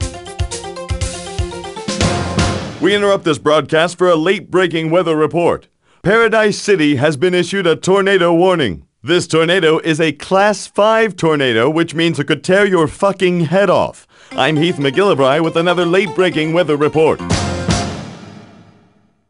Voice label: News Tornado